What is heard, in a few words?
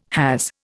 "has", Indian